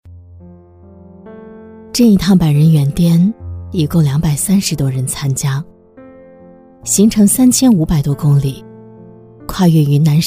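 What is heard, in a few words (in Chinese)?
女-公益宣传【温情成熟】; 深情感人; 韩红公益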